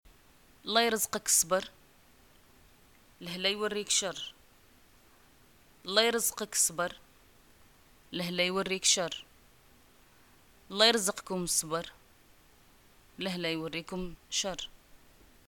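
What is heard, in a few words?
greeting death, Moroccan Arabic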